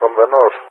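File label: "from the N", English, GREECE; Allied Flight; DCS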